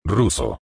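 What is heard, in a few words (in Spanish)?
"ruso", Spanish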